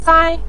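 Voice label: "sai", Mandarin Chinese, neutral tone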